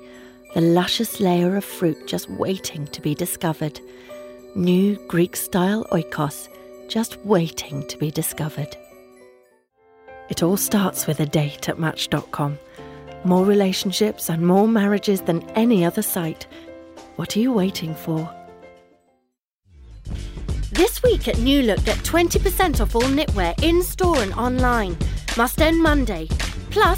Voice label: Commercial

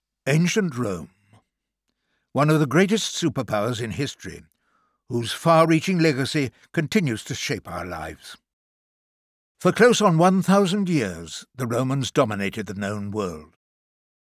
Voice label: documentary; ancient rome

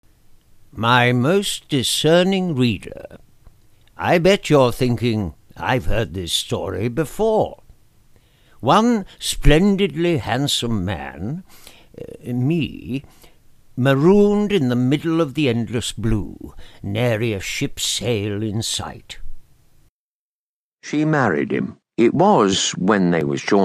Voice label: UK Narrative

